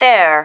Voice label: "there", female

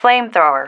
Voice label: "flamethrower", female

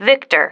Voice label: "victor", female

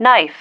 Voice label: "knife", female

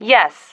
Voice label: "yes", female

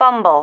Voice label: "fumble", female

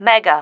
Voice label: "mega", female

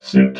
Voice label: "suit", robot

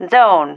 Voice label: "zone", female

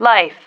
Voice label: "life", female

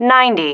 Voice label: "ninety", female

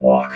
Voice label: "walk", robot

